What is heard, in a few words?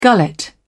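"gullet", British English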